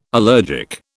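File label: "allergic", British English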